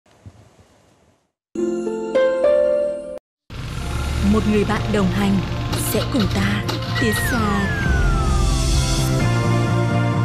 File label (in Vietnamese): Nu Mien Bac, Voice off